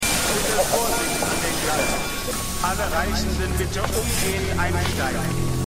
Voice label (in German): Durchsage; Revolution